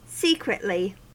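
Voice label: "secretly", American English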